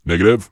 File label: corp negative; Dystopia; vox new